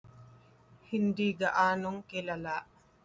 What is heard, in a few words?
Tagalog; not-so-known - hindi gaanong kilala